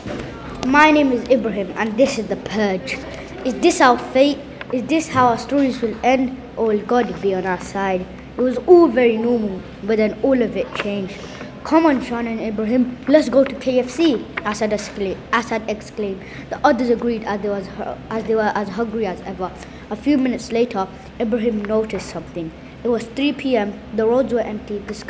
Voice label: Audiobook